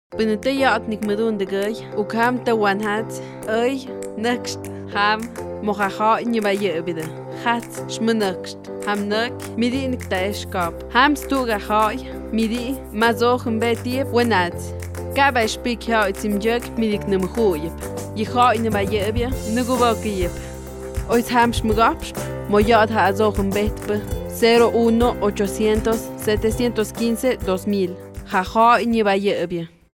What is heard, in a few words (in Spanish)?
Spot mixe; Campanas; CNDH